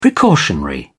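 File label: "precautionary", British English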